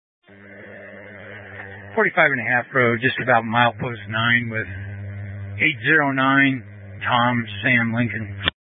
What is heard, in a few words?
Sheriff Dispatch, scanner